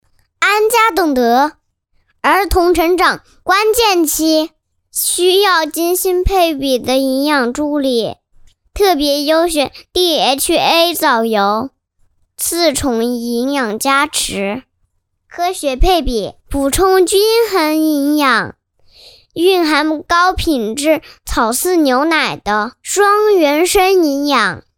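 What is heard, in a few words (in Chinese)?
男大音; 配音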